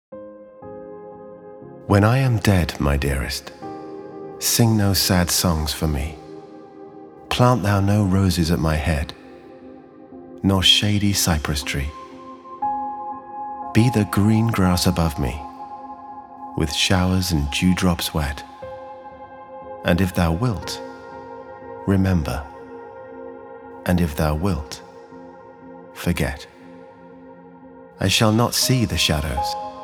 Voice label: Readings